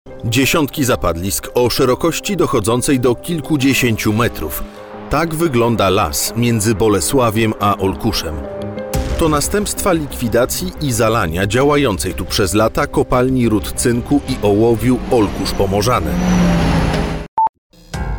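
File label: Polish, male, DOCUMENTARY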